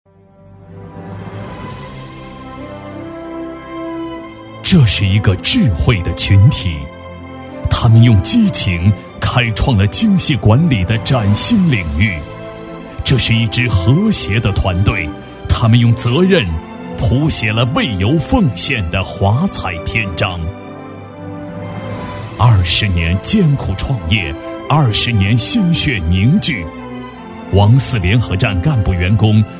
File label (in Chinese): 男 专题; 第三采油厂